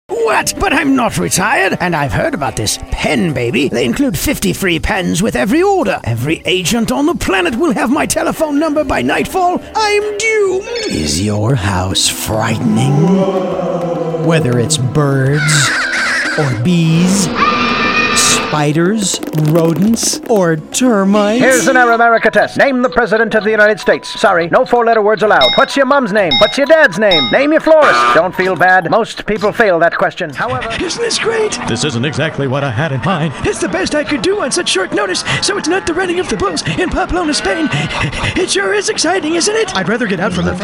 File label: voiceover